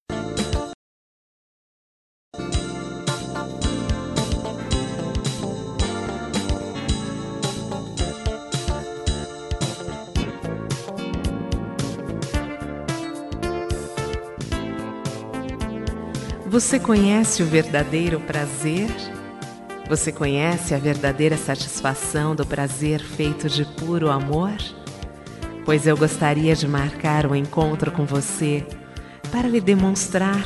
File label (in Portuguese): erotica convite, Telemensagem Erótica, Voz Feminina